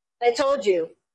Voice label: VoiceActing es F